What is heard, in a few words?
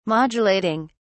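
"modulating", British English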